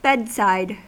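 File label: "bedside", US English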